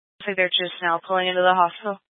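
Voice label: Sheriff Dispatch, Scanner audio recording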